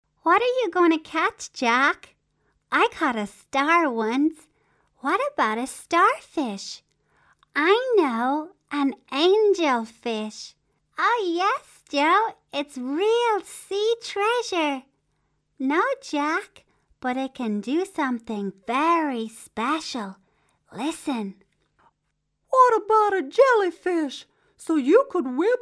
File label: cartoon